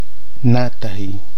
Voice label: "natahi", Nafe (Kwamera)